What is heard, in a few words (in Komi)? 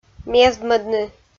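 "мездмӧдны", Komi-Zyrian, female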